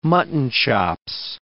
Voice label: "muttonchops", English